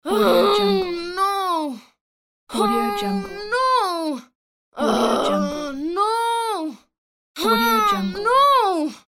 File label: female angry oh no